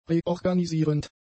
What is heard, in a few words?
"reorganisierend", German; adjectives